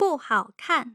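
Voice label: "bu hao kan", Mandarin Chinese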